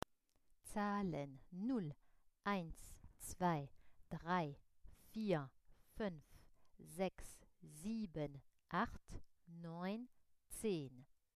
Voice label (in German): Zahlen 0 bis 10